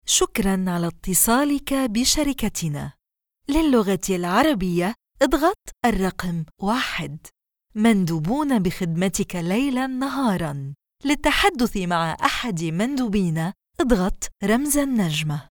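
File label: arabic interactive voice response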